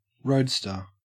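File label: "roadster", Australian English; pronunciations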